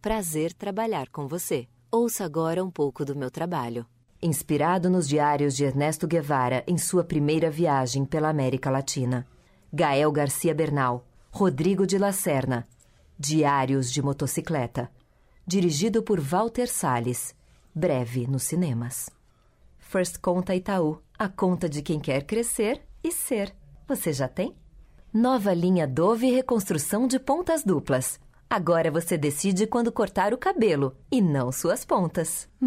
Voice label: portugues brasil; audiomarketing